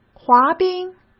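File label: "滑冰", Mandarin Chinese; chinese-flashcards; hsk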